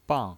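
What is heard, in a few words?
"bang", Mandarin Chinese, fourth tone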